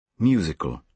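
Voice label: "musical", British English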